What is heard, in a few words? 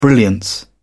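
"brilliance", British English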